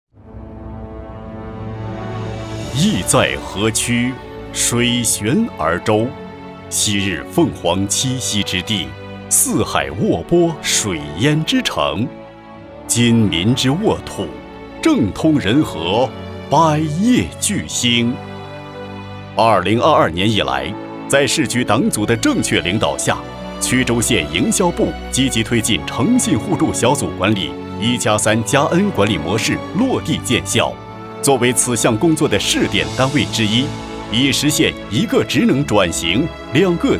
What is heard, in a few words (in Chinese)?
男 宣传; 邯郸